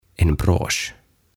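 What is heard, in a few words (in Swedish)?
"en brosch", Swedish; Rintamerkki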